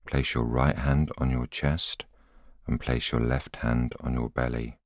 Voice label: voiceover